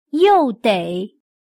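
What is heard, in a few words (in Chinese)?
"又得" Mandarin Chinese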